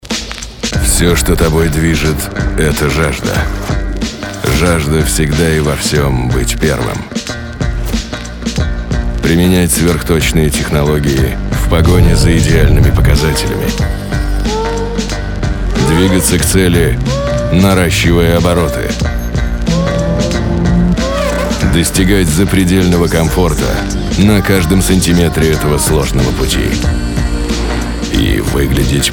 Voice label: diktor